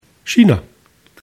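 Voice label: "china", German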